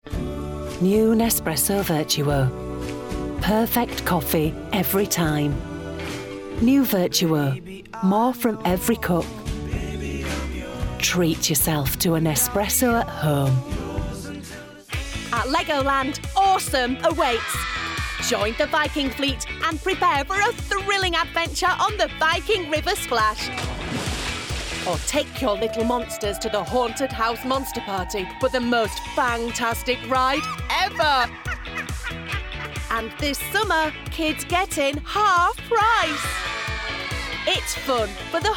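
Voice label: Commercials Montage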